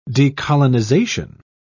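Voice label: "decolonisation", British English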